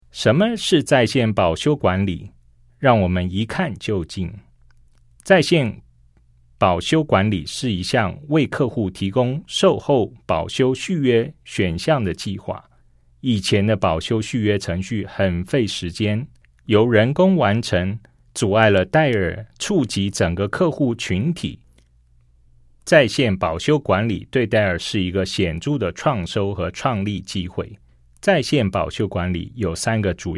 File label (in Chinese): CHINESE